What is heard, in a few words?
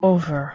"ofer", Anglo Saxon